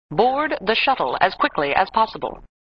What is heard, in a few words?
Voice Computer "Board the shuttle as quickly as possible"; flipper; sttng